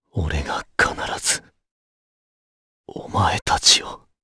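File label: voice, Japanese; Clause ice; kingsraid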